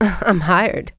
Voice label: female hired; kingpin; hiredgirl